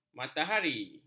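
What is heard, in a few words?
"matahari", Malay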